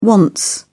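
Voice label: "wants", English, British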